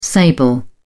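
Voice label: "sable", British English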